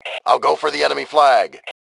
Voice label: Self Attack Flag, Male; T2 Male 04

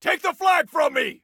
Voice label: Flag Take, Soldier Male

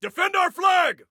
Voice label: Defend Flag, Soldier Male

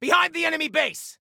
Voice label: Warn Enemy Behind Enemy Base, Male; T2 Male 03

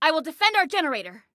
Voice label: Self Defend Generator, Female; Female 02

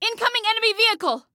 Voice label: Warn Vehicle, Female; Female 02

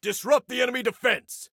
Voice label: Attack Disrupt, Soldier Male

